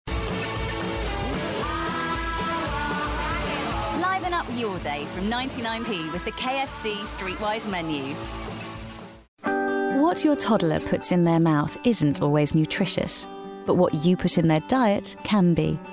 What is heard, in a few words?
Commercial